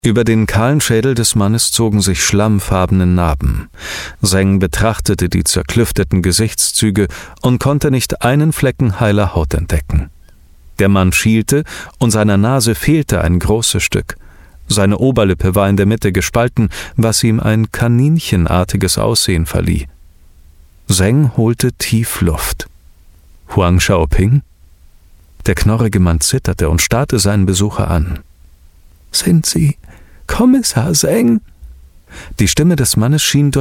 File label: german voice over male audiobook